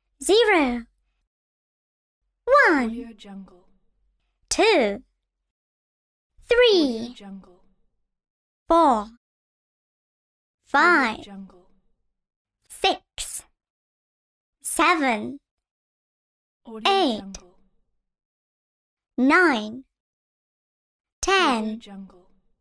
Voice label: girl counting numbers